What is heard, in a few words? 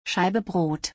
Cut bread in German